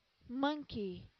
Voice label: "monkey", English

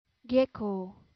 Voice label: "gecko", English

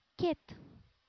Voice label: "cat", English

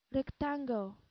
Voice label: "rectangle", English

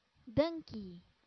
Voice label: "donkey", English

